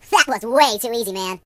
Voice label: "Flawless", Surfer; HedgewarsMobile